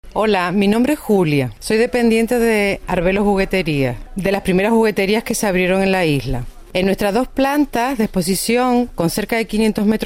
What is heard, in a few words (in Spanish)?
TESTIMONIO; ARVELO JUGUETERIAS